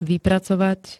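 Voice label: "vypracovať", Slovak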